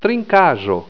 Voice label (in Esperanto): "trinkajo", Esperanto